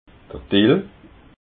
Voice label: "dill", alsace

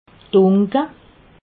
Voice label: "dunke", alsace

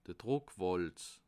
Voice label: "druckwalze", alsace

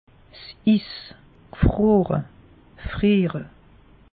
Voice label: "is", alsace; meteo

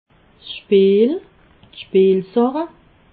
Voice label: "spiel", alsace

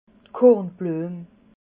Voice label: "kornbluem", alsace

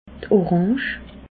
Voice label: "oransch", alsace

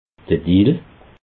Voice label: "dill", alsace

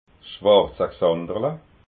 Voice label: "xanderla", alsace

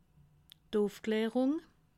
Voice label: "ufklarung", alsace

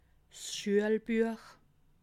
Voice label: "schuelbuech", alsace

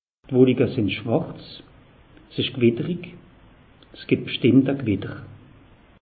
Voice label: "wolike sinn schwarz", alsace; meteo

